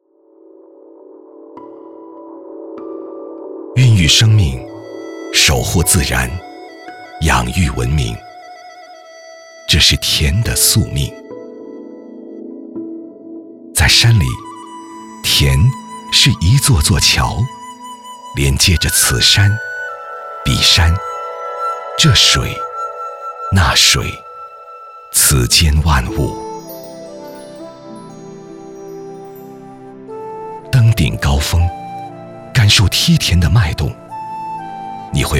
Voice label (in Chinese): 男 纪录片 品质纪录片; 我们的田园